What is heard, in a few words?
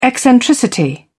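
"eccentricity", British English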